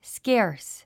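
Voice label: "scarce", English word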